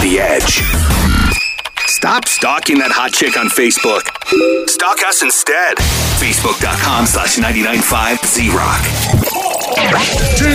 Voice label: radio imaging alt rock